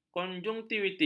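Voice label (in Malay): "konjunktivitis", Malay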